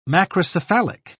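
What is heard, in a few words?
"macrocephalic", British English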